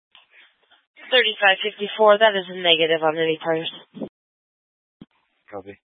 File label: Police Dispatch; Police 1 Dispatch